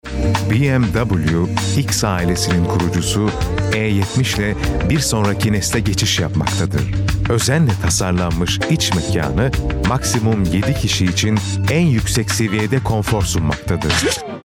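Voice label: Turkish commercial, tyrkisk mann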